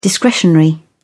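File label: "discretionary", British English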